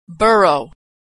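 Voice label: "borough", American English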